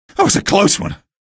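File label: citizen evac thanks, male; vo; npc; source engine; episodic; episode 1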